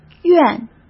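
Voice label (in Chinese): "院", Mandarin Chinese; chinese flashcards; hsk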